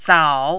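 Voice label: "zao3", mandarin, dipping tone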